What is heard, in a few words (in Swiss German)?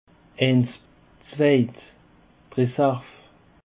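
"zweid", Alsatian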